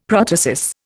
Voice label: "protasis", Indian; Dictionary

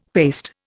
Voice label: "paste", Indian; Dictionary

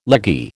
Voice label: "leggy", American

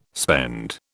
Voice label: "spend", British

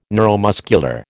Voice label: "neuromuscular", American

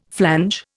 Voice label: "flange", Indian

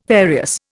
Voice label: "various", Indian

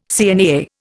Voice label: "CNAA", Indian

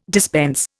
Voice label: "dispense", Indian

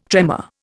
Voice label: "tremor", Indian

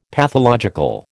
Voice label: "pathological", American

